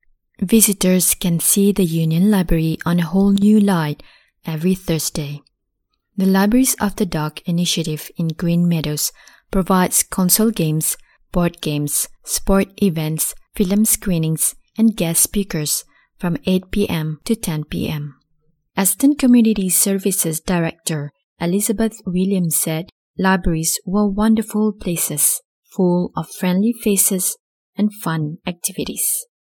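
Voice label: Malay accented English; GoLocalise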